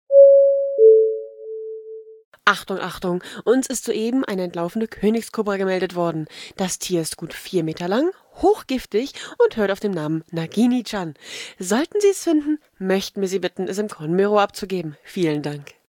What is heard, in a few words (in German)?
durchsage